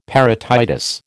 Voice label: "parotitis", American